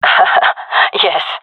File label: cheer, gendermerie fem; vo